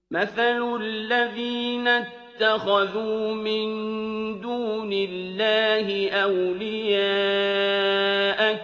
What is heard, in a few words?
arabic language; arabic language free course